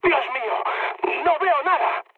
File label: searchlite, mood med, speech; War Thunder